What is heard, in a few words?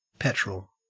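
"petrol", Australian English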